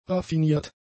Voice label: "raffiniert", German; adjectives

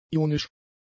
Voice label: "ionisch", german; adjectives

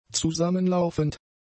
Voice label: "zusammenlaufend", German; adjectives

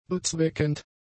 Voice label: "bezweckend", German; adjectives

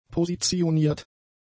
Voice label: "positioniert", German; adjectives

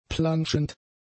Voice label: "planschend", German; adjectives

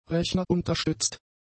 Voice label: "rechnerunterstützt", German; adjectives

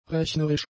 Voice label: "rechnerisch", German; adjectives

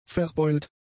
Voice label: "verbeult", German; adjectives

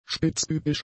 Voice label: "spitzbübisch", german; adjectives

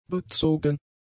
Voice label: "bezogen", German; adjectives

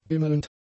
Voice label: "bimmelnd", German; adjectives

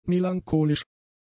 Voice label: "melancholisch", German; adjectives

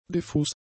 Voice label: "diffus", german; adjectives

